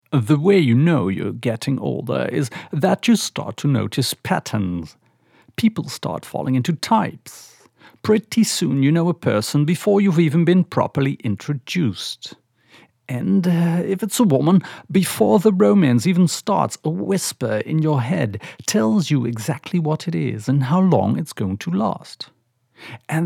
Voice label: Accent British